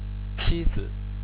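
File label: "qizi", Chinese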